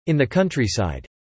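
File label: "in the countryside" spoken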